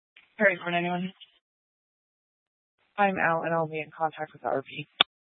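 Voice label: Police Dispatch, Scanner audio recording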